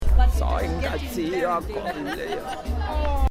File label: untranscribable space alien language; Village Vanguard; Where's the restroom?